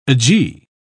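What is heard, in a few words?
"agee", British English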